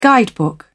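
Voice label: "guidebook", British English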